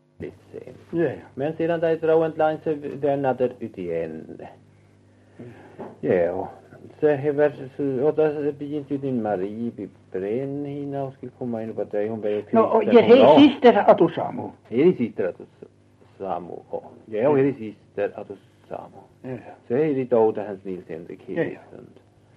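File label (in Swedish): Överkalix Norrbotten, dialekter, svenska sagor; Folkminnen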